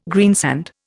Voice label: "greensand", Indian